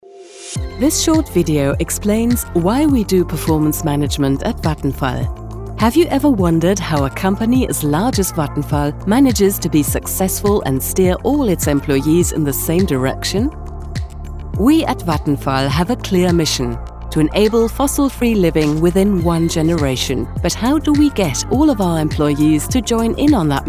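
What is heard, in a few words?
English, e-learning; Vattenfall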